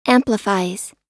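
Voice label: "amplifies", US English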